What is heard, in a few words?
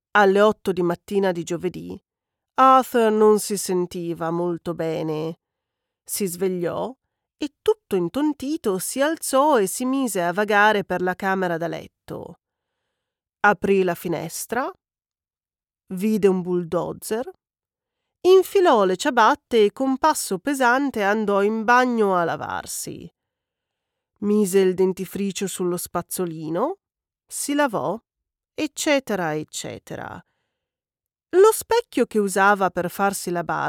Fiction Italian Sci fi Humour; Guida Galattica per Autostoppisti